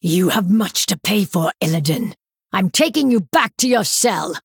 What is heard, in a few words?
Female Night Elf Intro